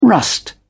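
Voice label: "rust", British English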